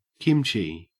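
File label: "kimchi", Australian English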